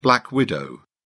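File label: "black widow", British English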